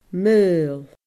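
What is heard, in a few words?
"maoil", Scottish Gaelic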